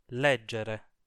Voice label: "leggere", Italian

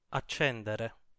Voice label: "accendere", Italian

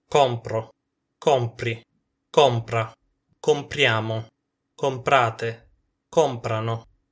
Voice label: comprare coniugazione, Italian

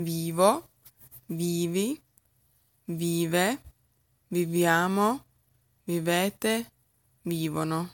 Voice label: vivere coniugazione, Italian

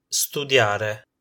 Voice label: "studiare", Italian

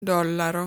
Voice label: "Dollaro", Italian

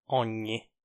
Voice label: "ogni", Italian

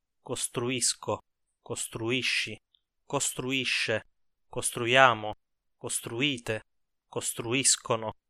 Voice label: costruire coniugazione, Italian

